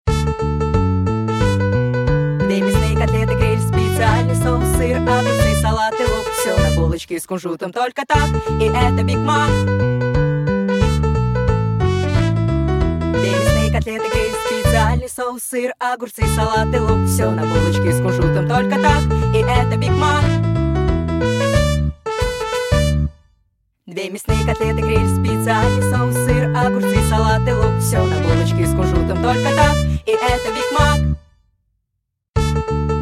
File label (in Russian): Реклама